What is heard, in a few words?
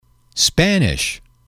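"language", English, male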